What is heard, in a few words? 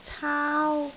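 "caau1", Cantonese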